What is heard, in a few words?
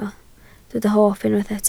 "hoff", Welsh